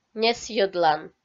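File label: "несйӧдлан", Komi-Zyrian, female; kpv audio dict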